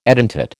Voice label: "edentate", American

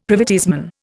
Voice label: "privateersman", Indian; Dictionary

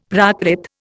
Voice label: "Prakrit", Indian; Dictionary

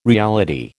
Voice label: "reality", American

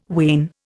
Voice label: "wane", Indian

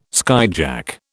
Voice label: "skyjack", British

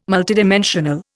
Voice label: "multidimensional", Indian

